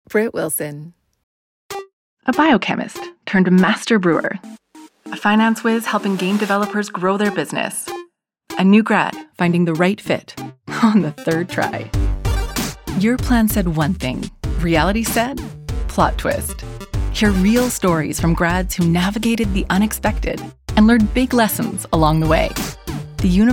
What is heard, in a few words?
Commercial